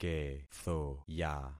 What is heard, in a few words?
"kethoya", concat syllables; Affixing experiment